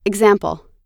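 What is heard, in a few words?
"example", US English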